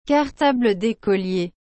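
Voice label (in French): "cartable decolier", French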